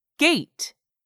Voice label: "gate", English; 3000 words